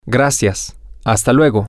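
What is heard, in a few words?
thank you until later, Spanish, male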